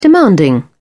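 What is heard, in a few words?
"demanding", British English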